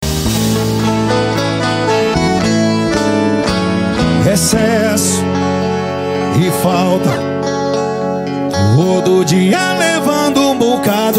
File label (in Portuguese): locutor a voz magnifica